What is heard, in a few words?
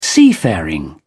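"seafaring", English, British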